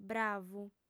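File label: "bravu", female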